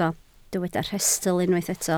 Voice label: "rhestl", Welsh

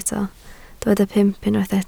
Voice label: "pump", Welsh

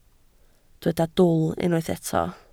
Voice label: "dwl", Welsh

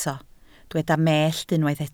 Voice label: "mellt", Welsh

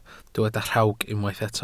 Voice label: "rhawg", Welsh

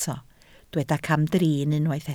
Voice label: "camdrin", Welsh

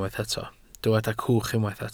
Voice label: "cwch", Welsh

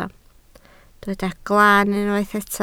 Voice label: "glaan", Welsh

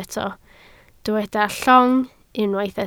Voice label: "llong", Welsh

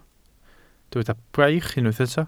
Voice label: "braich", Welsh

